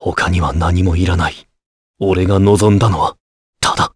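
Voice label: ice vox Japanese; Clause; kingsraid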